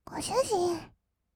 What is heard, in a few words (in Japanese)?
ご主人, kawaii voice; ASMR; 二人称